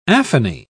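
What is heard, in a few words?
"aphony", British English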